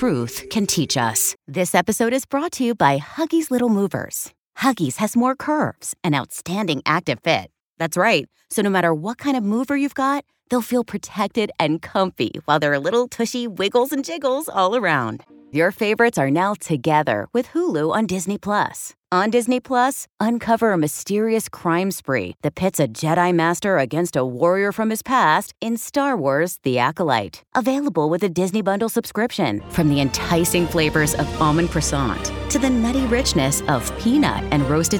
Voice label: podcast, Podcast Commercial and Promo Female Voice Over, Casual and approachable voice overs